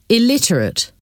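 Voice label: "illiterate", English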